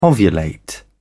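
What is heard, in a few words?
"ovulate", British English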